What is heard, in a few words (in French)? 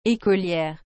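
"ecoliere", French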